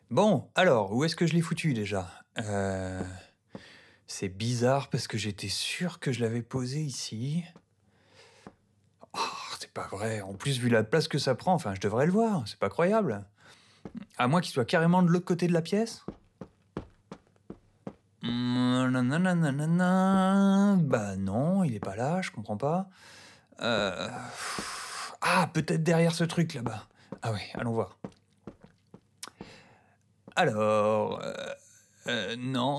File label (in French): Des voix dans l espace Reverb Send